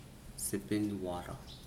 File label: "neck", Mokilese